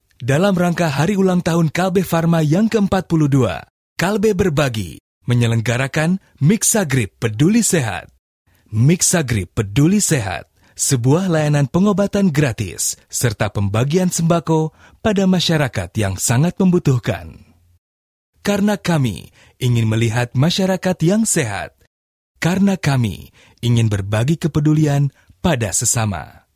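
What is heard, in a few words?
Indonesian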